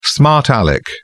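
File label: "smart alec", English, British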